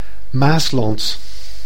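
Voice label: "Maasland", Dutch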